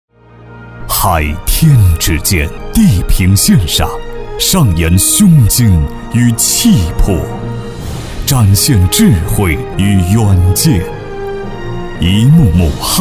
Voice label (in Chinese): 男 专题